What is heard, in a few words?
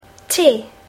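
"tea", English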